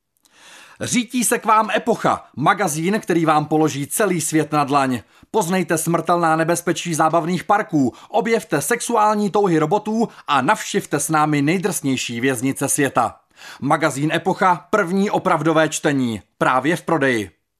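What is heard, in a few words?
Male Czech commercial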